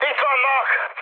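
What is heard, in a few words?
Hungarian enemy detected mood high, speech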